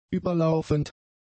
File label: "überlaufend", German; adjectives